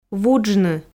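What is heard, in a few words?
"вуджны", Komi, female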